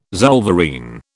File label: "zollverein", British